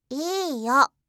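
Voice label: "iiyo", Japanese; gomen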